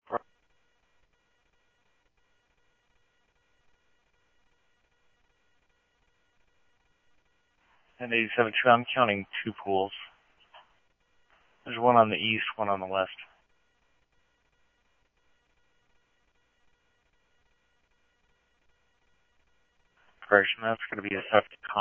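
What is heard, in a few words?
Mesa police department, scanner audio trunk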